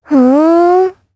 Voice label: vox, jp; Sonia; kings raid